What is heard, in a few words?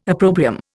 "opprobrium", Indian